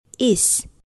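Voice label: "is", English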